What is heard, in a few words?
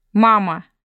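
"Mom", Russian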